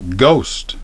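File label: "ghost", English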